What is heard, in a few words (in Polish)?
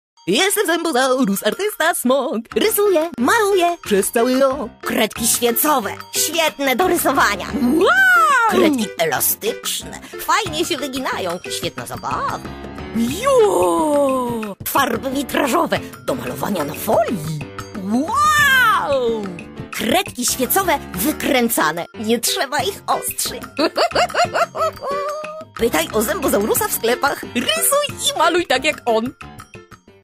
Zębozaurus reklama telewizyjna